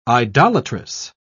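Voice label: "idolatress", English, British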